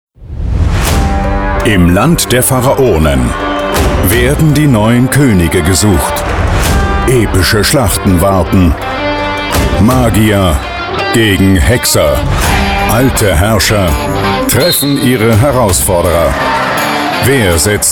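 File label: German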